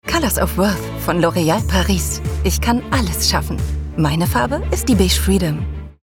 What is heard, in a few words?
german